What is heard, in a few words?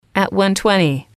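at One Twenty, english, female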